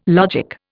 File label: "logic", Indian